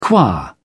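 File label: "qua", British English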